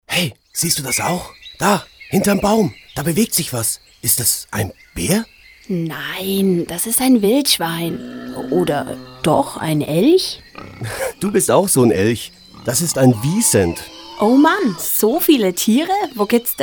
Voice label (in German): Werbung; Erlebnis Akademie